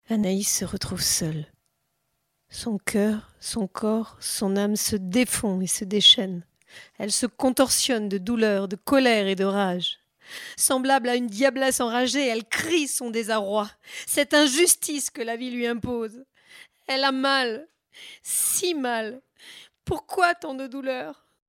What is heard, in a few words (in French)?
Narration Drame Emotion extreme